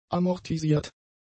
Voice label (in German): "amortisiert", German; adjectives